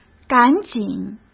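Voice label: "赶紧", Mandarin Chinese; chinese-flashcards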